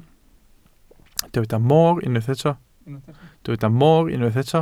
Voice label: "moor", Welsh

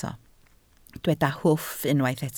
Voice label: "hwff", Welsh

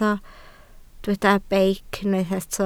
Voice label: "beic", Welsh

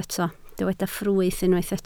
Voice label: "ffrwyth", Welsh

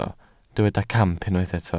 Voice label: "camp", Welsh

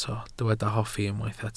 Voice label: "hoffi", Welsh

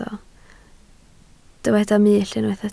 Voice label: "mull" Welsh

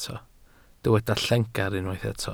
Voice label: "llengar" Welsh

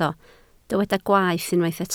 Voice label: "gwaeth" Welsh

